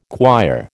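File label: "quire", American English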